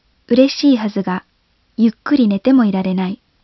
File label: Japanese Female, Target